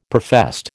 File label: "professed", American